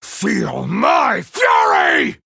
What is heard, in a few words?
Male Night Elf Hero Power; Kurtrus; Cariel